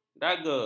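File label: "daga", Malay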